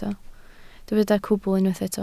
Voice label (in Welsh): "cwbl", Welsh